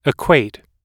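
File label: "equate", English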